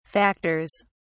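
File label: "factors", British English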